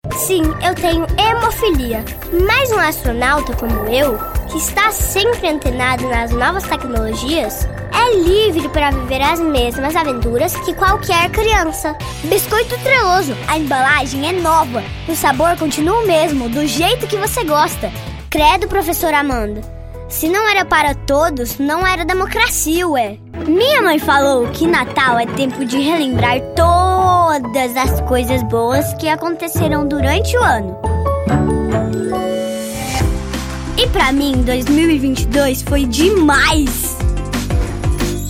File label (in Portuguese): Audio Infantil Masculina